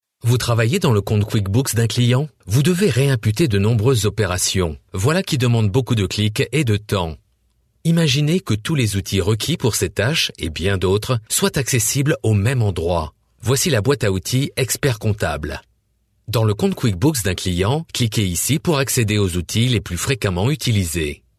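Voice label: French, male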